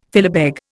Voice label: "filibeg", Indian

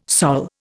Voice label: "Sol", Indian

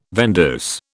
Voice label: "vendeuse", British

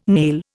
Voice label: "nail", Indian

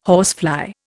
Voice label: "horsefly", Indian

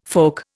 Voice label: "folk", Indian

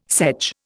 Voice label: "sedge", Indian

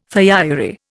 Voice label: "thiourea", Indian

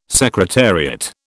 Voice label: "secretariat", British

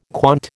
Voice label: "quant", American

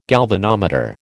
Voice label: "galvanometer", American

